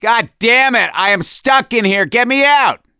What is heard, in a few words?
call for rescue, survivor voice; gambler